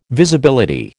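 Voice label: "Visibility", American English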